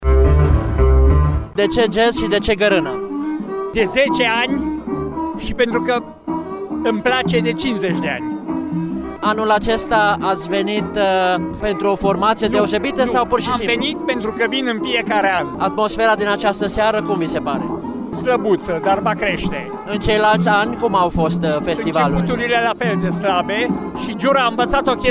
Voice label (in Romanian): Vox jazz